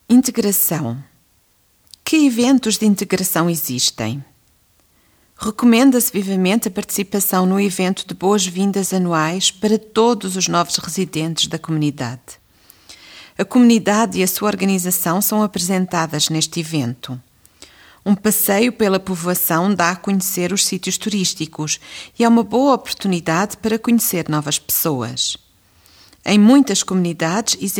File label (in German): Erstinformation Audio Portugiesisch